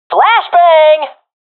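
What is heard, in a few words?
throwing flashbang, professional female; vo; Counter Strike Model Pack; CS:GO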